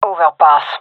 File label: vo agents gendarmerie fem